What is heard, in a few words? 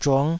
"zhung5", Taiwanese